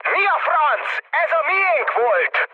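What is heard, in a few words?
Hungarian player killed ally mood high, speech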